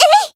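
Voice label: Voice attack, Japanese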